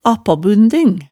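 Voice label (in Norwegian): "appaabunding", Hemsedal dialect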